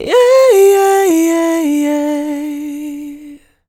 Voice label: "Yeah yeah yeah", Male Vocals, Ad-Libs - C Major 150BPM; Ghosthack - Free Male Vocals and Atmospheres